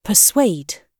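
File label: "persuade", British English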